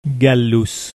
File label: "gallus", Latin